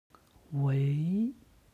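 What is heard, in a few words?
"wei", Chinese; 喂; もしもし; HSK Level One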